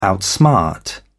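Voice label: "outsmart", British English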